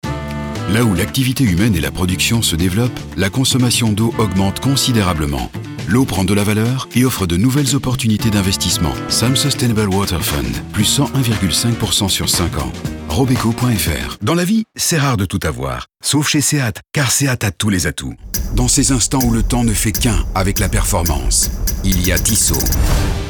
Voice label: showreel radio